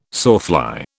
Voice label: "sawfly", British